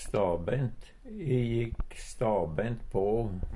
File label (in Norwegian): stabeint, Numedalsmål; rett på, rett fram; Adverb